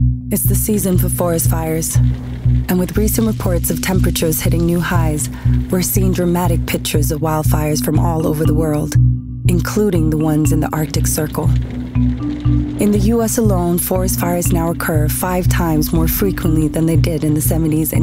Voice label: Documentary

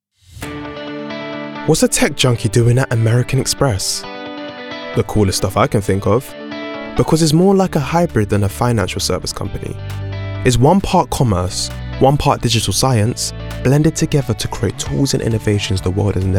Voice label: Corporate

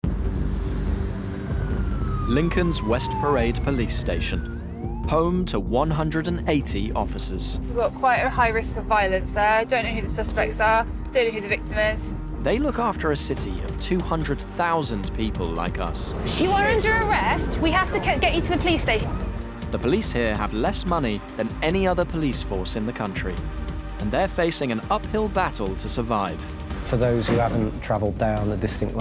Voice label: Documentary

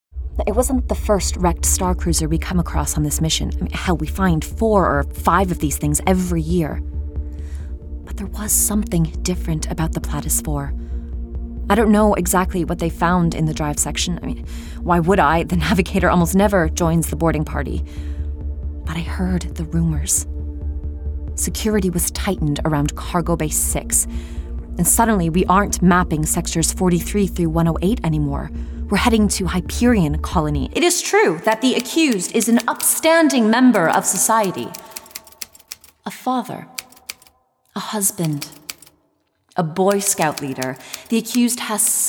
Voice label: Radio Drama

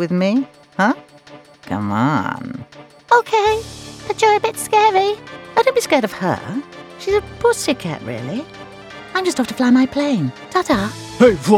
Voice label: Animation and Caricatures

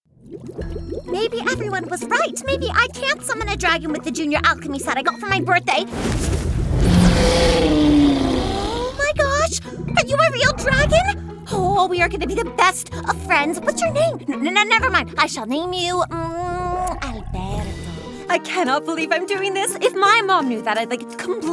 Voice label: Animation

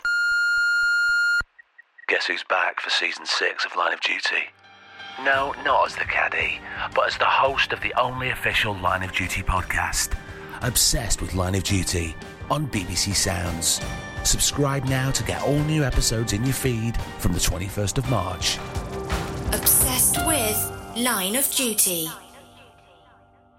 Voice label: Podcast

